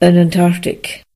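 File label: antarctica, Swedish; gcompris-data; geography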